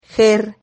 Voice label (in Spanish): "ger", Silabas